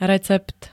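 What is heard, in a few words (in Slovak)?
"recept", Slovak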